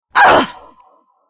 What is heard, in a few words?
anger; Crystal Maiden